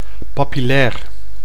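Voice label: "papillair", Dutch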